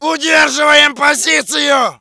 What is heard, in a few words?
pain talk; soviet